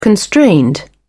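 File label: "constrained", English, British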